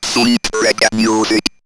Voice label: Robot Sweet Reggae Music, robot vox; X-Static Goldmine

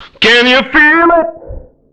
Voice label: Male FX Vocals; Houseworx

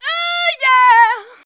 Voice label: "UUU YEAH", SHOUTS; Best Service; Hyper Dance

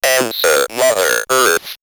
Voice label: Robot Answer Mother Earth, robot vox; X-Static Goldmine

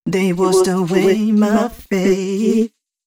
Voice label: female d 120bpm, short hooks, Dance Voice; Mutekki Media; Dance Ultra Voice VOL-1

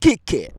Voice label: "KICK IT", SHOUTS; Best Service; Hyper Dance

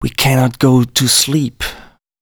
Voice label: male, Dance spoken hooks

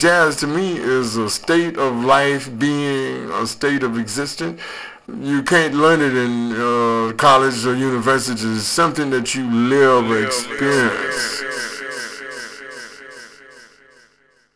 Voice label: Jazz Is, Vox FX; Total Drum&Bass

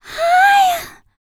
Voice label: FEM SPOKEN; ILIO; Vocal Planet VOL-4; R&B & Dance

